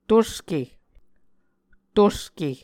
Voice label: "tuske", Muscogee